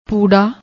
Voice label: "poorra", Punjabi

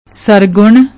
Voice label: "srgunn", Punjabi; ਸਰਗੁਣ

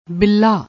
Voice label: "billa", Punjabi

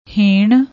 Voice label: "heenn", Punjabi; ਹੀਣ

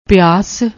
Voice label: "piaas", Punjabi

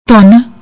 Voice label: "dhon", Punjabi; ਧੁਨ

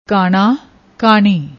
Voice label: "kaana", Punjabi; ਕਾਣਾ

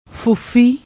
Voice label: "foofi", Punjabi

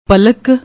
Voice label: "palak", Punjabi

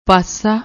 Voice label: "pasa", Punjabi

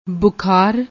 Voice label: "bookhaar", Punjabi

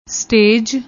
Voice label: "stej", Punjabi; ਸਟੇਜ

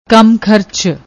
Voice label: "kamkhrch", Punjabi

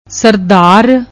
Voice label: "srdar", Punjabi; ਸਰਦਾਰ

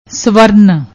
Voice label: "swrn", Punjabi; ਸਵਰਨ

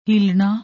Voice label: "hilnna", Punjabi; ਹਿੱਲਣਾ

